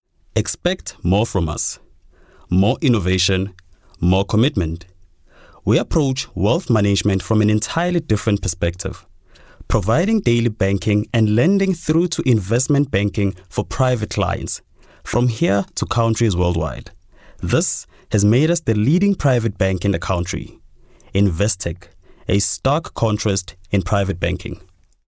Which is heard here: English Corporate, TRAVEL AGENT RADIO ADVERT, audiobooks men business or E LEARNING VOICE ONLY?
English Corporate